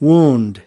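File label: "wound", English word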